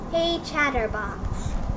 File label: hey chatterbox, en us